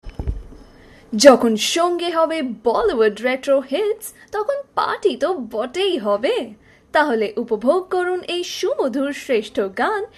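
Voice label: prompt bengali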